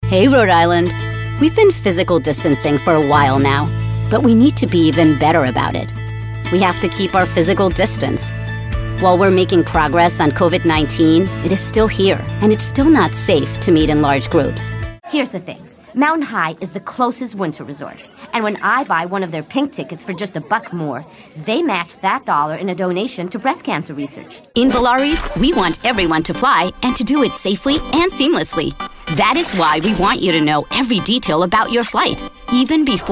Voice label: english commercial; Atriz de dublagem mexicana-americana

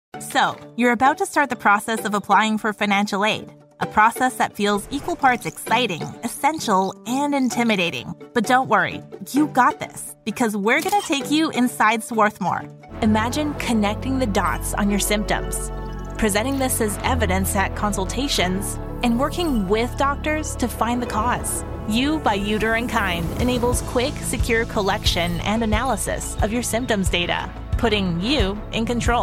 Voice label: english american explainer videos

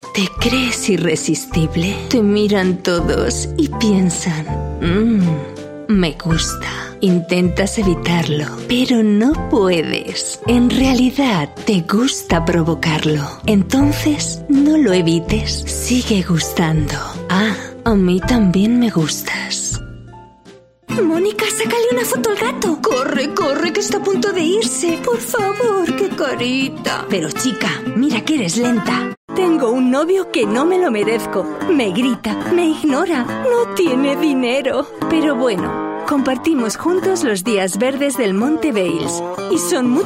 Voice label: spanish promos

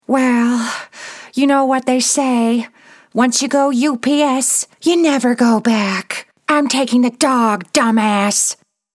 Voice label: english australian impersonations; australische Sprecherin

